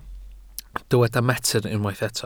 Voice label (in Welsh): "metr", Welsh